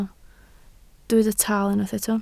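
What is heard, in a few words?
"taal" Welsh, spoken word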